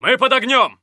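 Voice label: Russian Neutral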